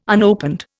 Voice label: "unopened", Indian

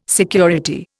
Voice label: "security", Indian

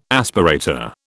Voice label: "aspirator", British

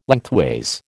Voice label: "lengthways", American

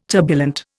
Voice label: "turbulent", Indian

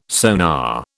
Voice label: "sonar", British

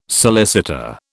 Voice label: "solicitor", British

